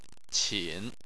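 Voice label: "qin", Chinese, tone 3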